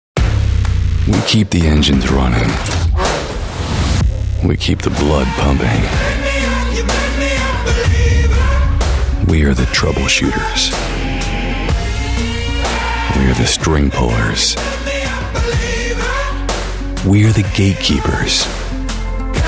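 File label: American English, Freundlich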